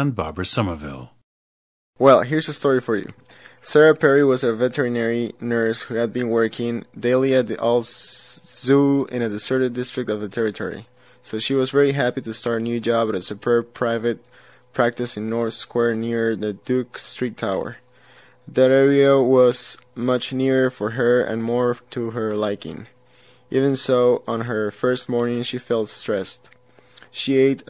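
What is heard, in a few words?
peru, dialects of English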